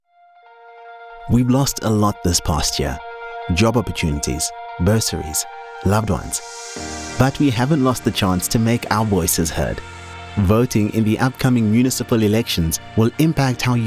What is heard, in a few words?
Register to vote- English